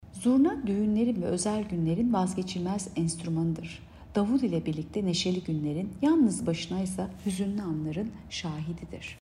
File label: turkce